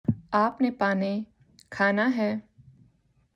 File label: Urdu